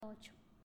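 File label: "Ocho", Spanish, female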